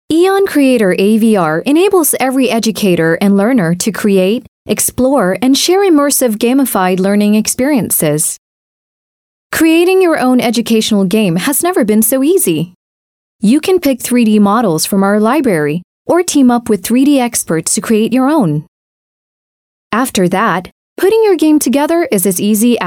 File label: US English, Female; EON Creator AVR